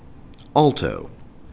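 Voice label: "alto", CAN, male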